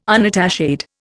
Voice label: "unattached", Indian